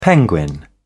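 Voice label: "penguin", English, British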